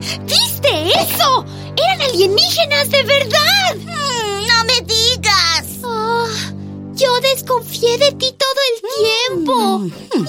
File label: US English Dubbing